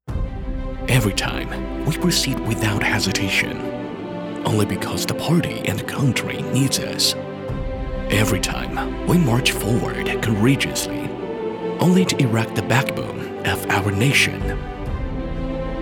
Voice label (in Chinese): 英男 英文 浑厚 专题企宣 国企